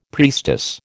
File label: "priestess", American